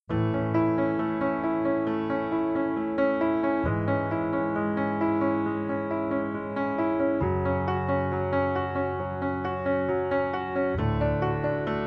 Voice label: ANIV PARA NOIVA, telemensagem; noiva noivo

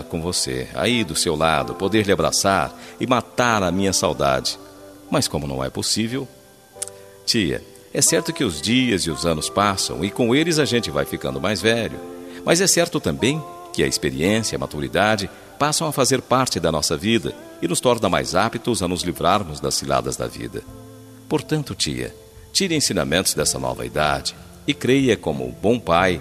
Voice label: ANIV TIA DISTANTE MASC, Telemensagem

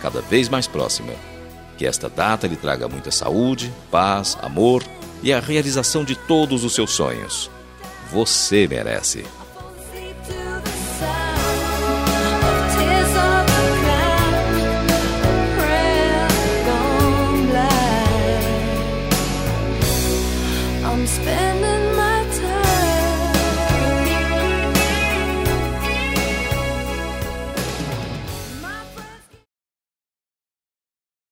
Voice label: ANIV CUNHADO DISTANTE, telemensagem aniversario cunhada cunhado

